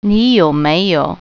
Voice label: "have", Mandarin

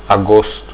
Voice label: august, portuguese

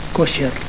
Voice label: "kosher", Catalan

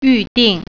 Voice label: "reservation", Mandarin

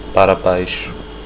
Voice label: down, portuguese

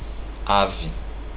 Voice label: poultry, portuguese

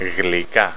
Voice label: Dessert, Greek

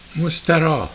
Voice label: "Mos'ta'rah", Farsi; Restrooms

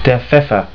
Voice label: "Pfeffer", German

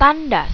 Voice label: "tandas", Malaysian

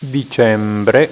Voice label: "dicembre", Italian

